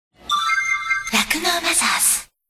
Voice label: Voice Logo